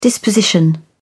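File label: "disposition", British English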